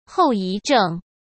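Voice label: "后遗症", Mandarin Chinese; hsk; chinese flashcards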